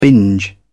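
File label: "binge", English, uk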